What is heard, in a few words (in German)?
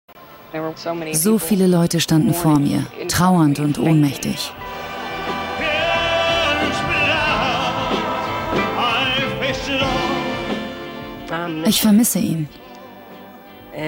Einfuehlsam; Fremdtake